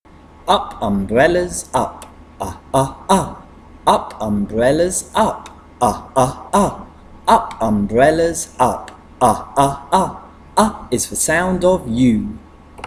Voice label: Vowel Chant u